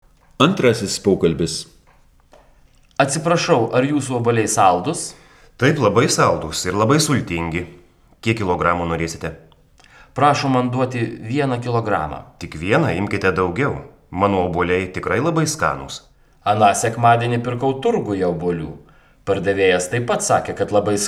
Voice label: Dialog